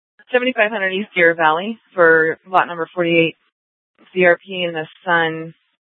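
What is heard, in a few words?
police dispatch, Scottsdale PD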